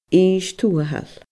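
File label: "Innis Tuathail", Scottish Gaelic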